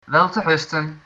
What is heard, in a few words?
good night, dutch